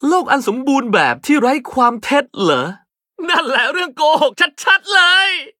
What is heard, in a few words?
level up, th; Cookie Run